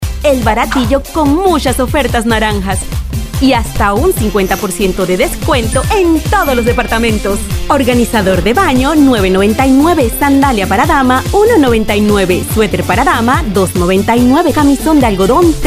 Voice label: locutora panama panamanian voiceover; locutora panameña